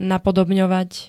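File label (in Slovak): "napodobňovať", Slovak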